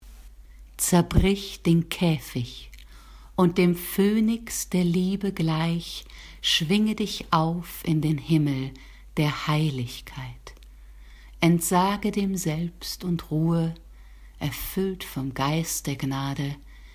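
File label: zerbrich den käfig, pronunciation, German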